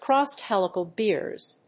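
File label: "crossed helical gears", English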